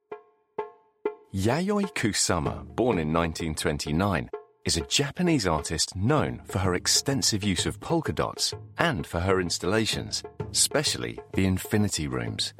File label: museum guide